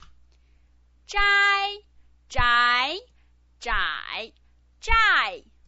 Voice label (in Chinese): "zhai", pinyin